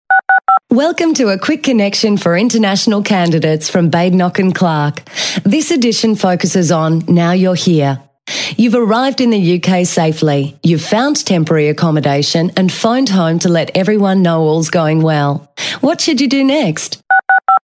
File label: brit, foreign woman